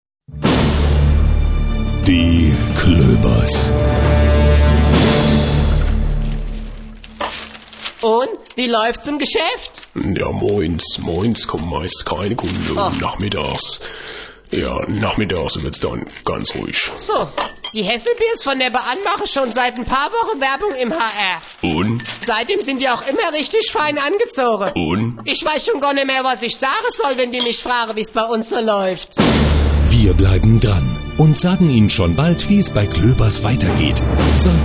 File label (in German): Medien Radiowerbung